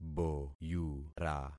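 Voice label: "boyura", concatenated syllables; Affixing experiment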